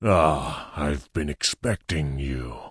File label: Tauren Male Elder NPC Greeting